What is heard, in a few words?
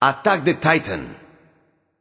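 vox attack male; smite; loki; voicepack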